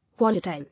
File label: "Volatile", English